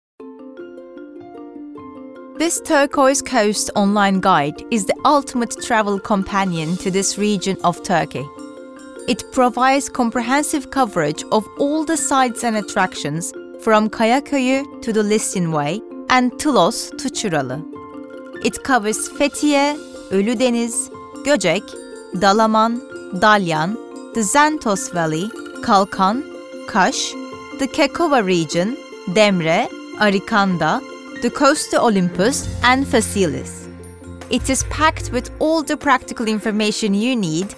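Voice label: Narration English; GoLocalise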